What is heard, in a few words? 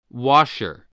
"washer", spelling